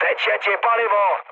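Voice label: tank damaged, Czech, mood med; War Thunder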